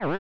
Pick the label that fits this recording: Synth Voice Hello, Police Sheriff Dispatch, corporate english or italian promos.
Synth Voice Hello